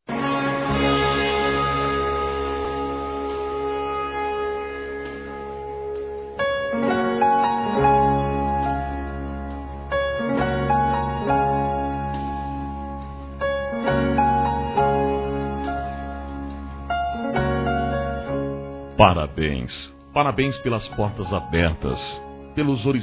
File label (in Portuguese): Congratulações, masc; Telemensagens; Parabenização